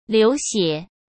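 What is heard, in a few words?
"流血", Mandarin Chinese; chinese flashcards; hsk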